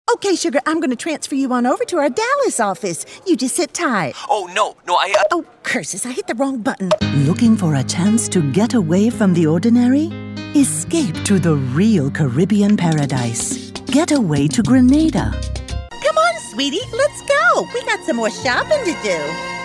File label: Character